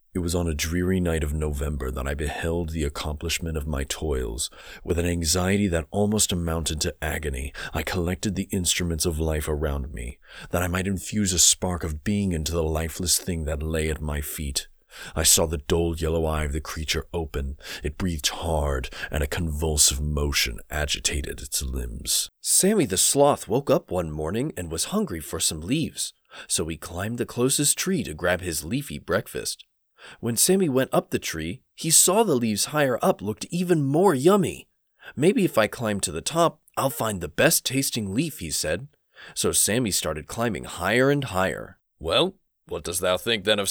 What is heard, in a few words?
Narrator Sample